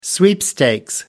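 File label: "sweepstakes", British English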